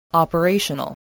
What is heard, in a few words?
"operational", voice, spelling